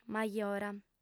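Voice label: "majora", female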